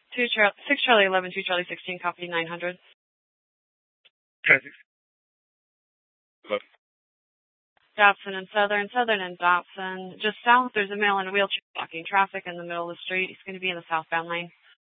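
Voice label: Police Department Dispatch, scanner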